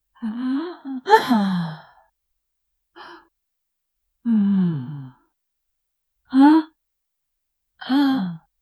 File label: normal female noticing so, reaction